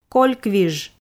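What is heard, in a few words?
"кольквиж", Komi, female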